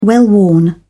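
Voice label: "well-worn", British English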